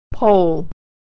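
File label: "pole", American English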